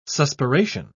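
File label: "suspiration", British English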